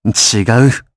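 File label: Vox Human Deny, Japanese; Shakmeh; kingsraid audio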